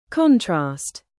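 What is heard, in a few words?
"bass", noun, British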